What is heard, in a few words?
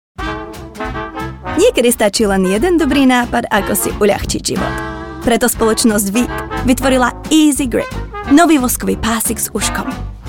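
Slovak, Commercial; GoLocalise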